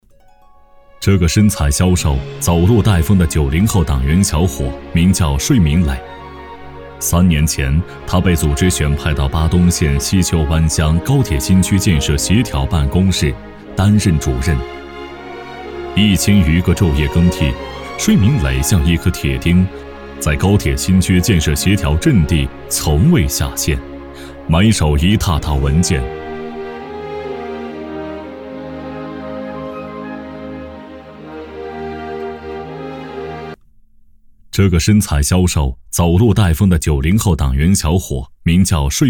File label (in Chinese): 男 专题纪录片 人物纪实